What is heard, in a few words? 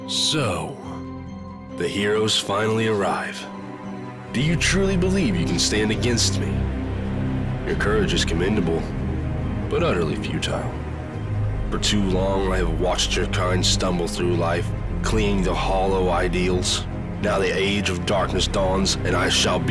Monologue